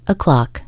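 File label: "o'clock", English; PBXNSIP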